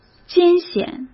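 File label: "艰险", Mandarin Chinese; chinese flashcards; hsk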